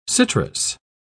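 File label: "citrous", British English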